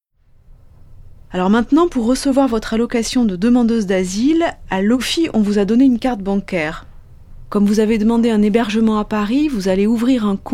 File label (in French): Dialogues entretien avec association; RFIAsile